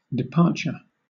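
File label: "departure", British English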